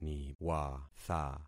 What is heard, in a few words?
"niwatha", concat syllables; Affixing experiment